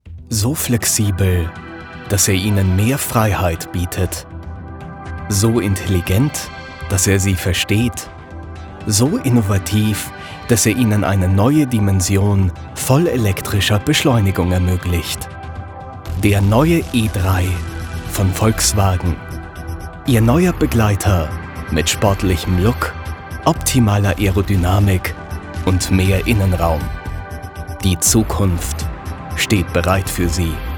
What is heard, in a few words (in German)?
Sprechprobe WERBUNG; VOLKSWAGEN